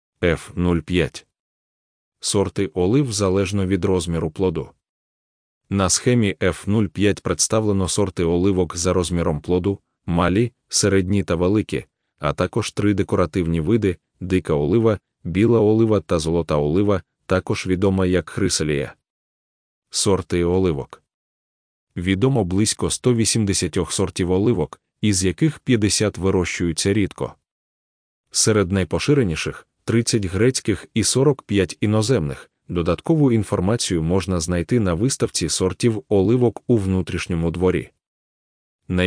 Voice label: ukrainian